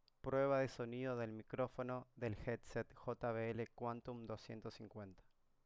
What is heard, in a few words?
jbl quantum 250 mic test default